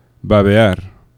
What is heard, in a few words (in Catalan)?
"babear", oclusives; laboratori fonetica